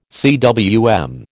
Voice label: "cwm", American

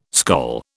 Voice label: "skol", British

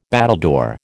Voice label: "battledore", American

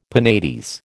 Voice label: "penates", American

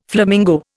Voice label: "flamingo", Indian

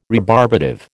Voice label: "rebarbative", American

